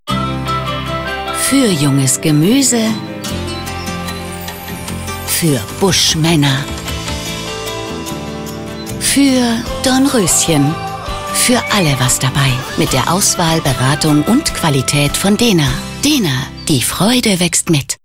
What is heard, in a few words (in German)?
Spots, Off, frauen; Dehner